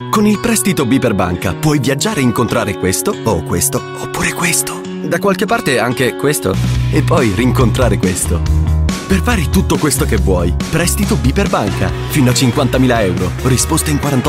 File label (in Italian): ITALIAN VOICES Commercial, male voices; Commercial Reel